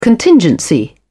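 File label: "contingency", British English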